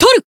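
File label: attack, Japanese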